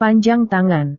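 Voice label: "panjang tangan", Indonesian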